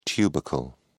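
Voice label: "tubercle", British English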